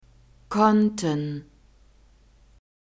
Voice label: "konten", german